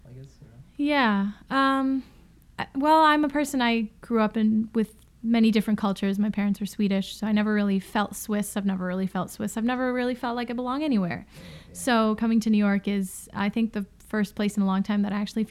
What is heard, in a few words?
English; PoetsLounge